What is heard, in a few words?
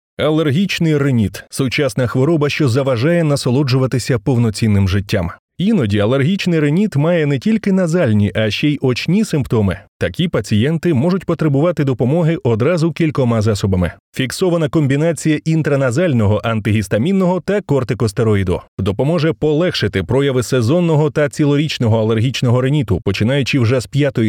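lang ukrainskij, Ukrainian language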